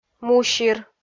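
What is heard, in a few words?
"мусир", Komi, female; kpv audio dict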